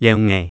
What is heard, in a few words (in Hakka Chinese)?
撈魚仔, 客語